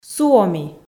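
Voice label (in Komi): "суоми", Komi, female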